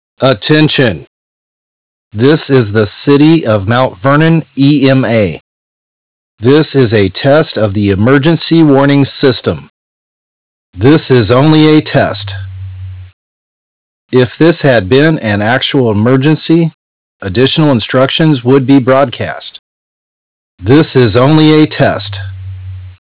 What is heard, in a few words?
test announcement